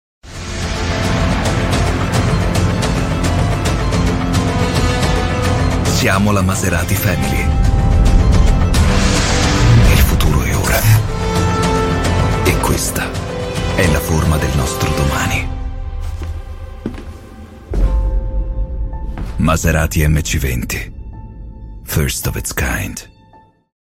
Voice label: Short Tv Car advertisement Voice Over